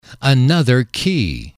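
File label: "another key", male, English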